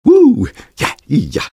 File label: taunt cong fun; engy; tf; taunts